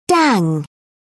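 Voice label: "dang", British English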